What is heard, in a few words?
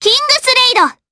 Vox, jp; Viska; kingsraid